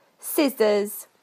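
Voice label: "scissors", British English; PictureDictionary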